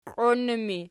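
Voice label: "qioinnu" ergative, Archi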